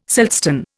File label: "siltstone", Indian